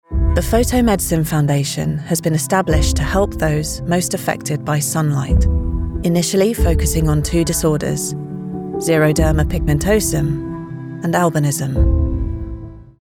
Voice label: english british narration